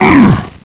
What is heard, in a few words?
pain, hgrunt; svencoop; cracklife